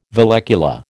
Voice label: "vallecula", American